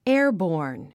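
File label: "airborne", English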